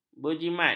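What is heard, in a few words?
"berjimat", Malay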